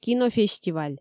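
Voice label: "кинофестиваль", Komi, female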